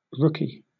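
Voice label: "rookie", British English; pronunciations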